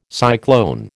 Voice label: "cyclone", American